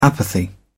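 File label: "apathy", British English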